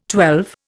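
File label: "twelve", Indian English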